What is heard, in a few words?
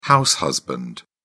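"house husband", British English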